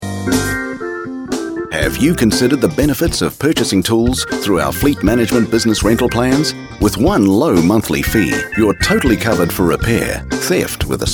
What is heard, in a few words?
retail supplier messages on hold